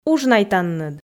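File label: "ужнайтанныд", Komi, female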